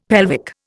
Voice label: "pelvic", Indian; Dictionary

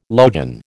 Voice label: "logion", American

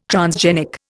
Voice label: "transgenic", Indian

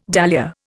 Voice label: "dahlia", Indian

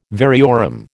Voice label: "variorum", American

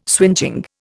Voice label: "swingeing", Indian

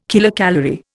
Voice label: "kilocalorie", Indian

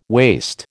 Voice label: "waste", American

